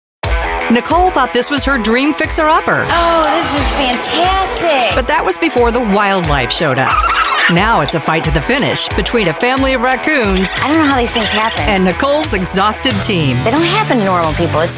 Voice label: Promo

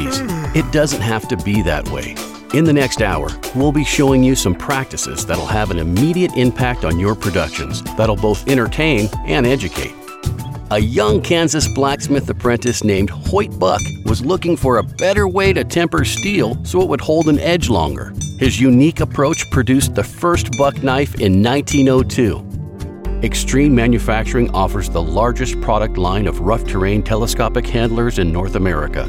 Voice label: corporate narration

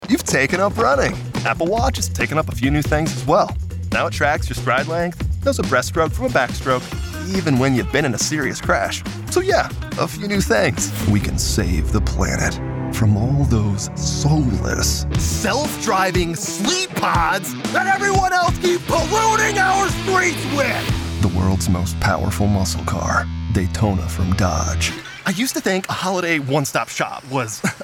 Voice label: Commercial

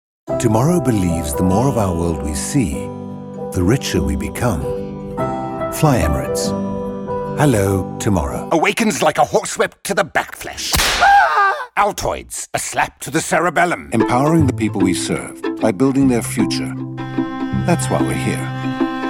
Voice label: Commercial British

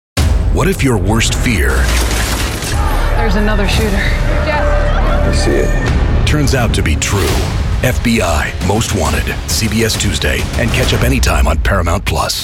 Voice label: Promo

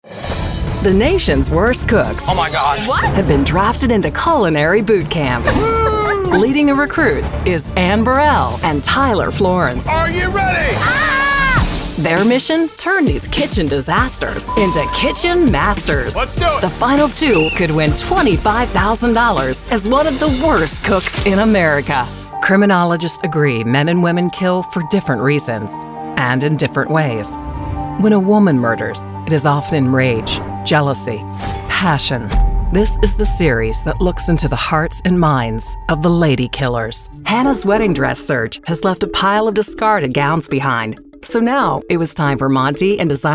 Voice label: TV Narration Documentary

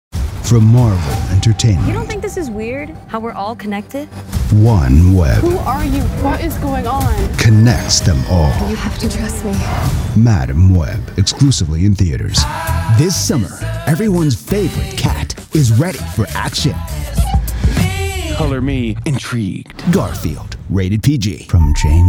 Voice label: Trailer

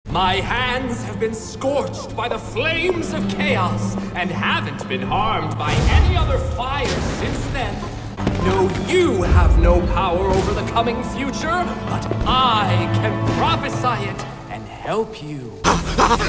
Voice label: Animation

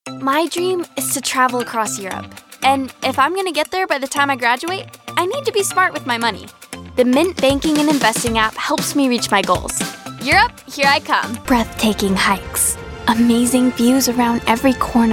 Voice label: Commercial

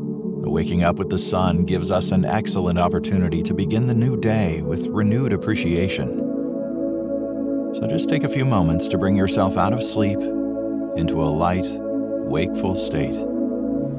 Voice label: Meditation

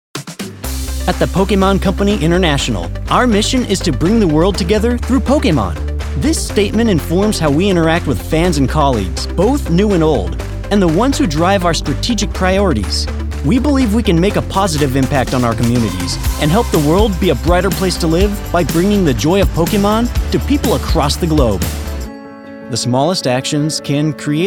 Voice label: Corporate Narration

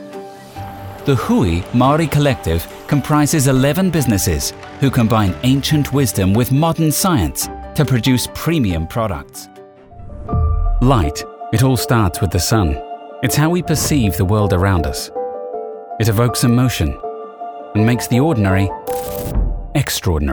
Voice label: Commercial New Zealand Accent

